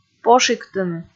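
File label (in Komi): "пошиктыны" Komi, female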